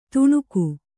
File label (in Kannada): "ತಣುಕು", kannada